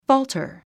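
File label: "falter", English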